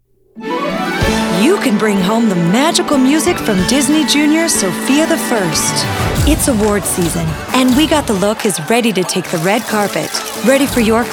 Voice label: promo voice; demo